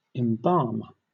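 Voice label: "embalm", British English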